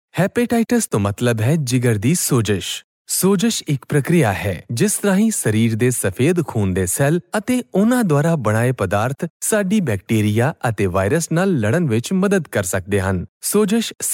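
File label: Punjabi, male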